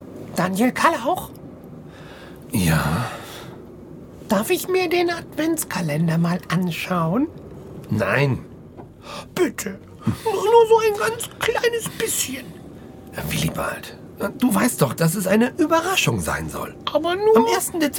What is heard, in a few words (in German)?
Hoerspiel